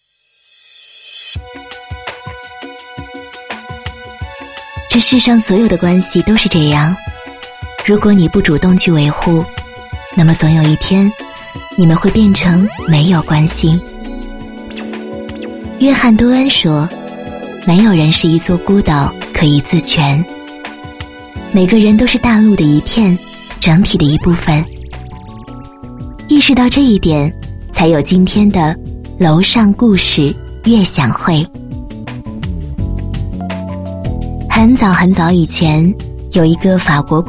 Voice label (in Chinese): 女19 解说 叙事 磁性 娓娓道来, 国语女声配音; 楼上故事